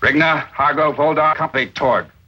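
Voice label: rigna holba kumpy torg, gibberish; Voice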